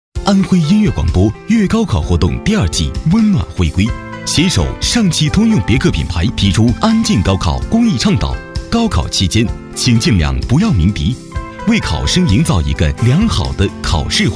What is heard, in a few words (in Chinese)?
男 电台广告 大气, 年轻全能 高端大气; 上汽别克